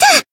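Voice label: Voice attack Japanese; leviachan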